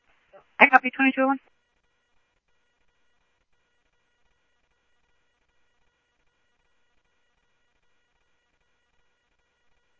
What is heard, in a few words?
Mesa Simulcast police; jumper